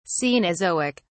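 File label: "cenozoic", British English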